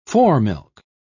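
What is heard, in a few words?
"foremilk", British English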